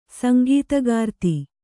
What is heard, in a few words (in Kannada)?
"ಸಂಗೀತಗಾರ್ತಿ", Kannada